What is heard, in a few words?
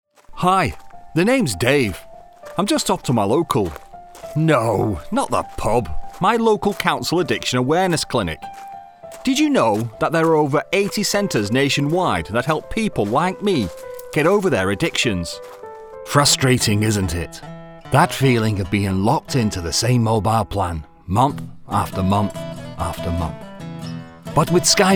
Commercial